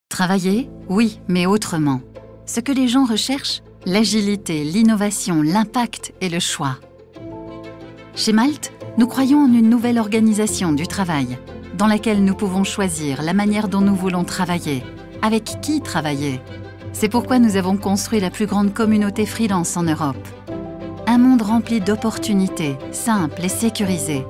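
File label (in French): pub voix off, voix off femme; malt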